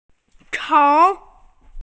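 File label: "chong2", Mandarin Chinese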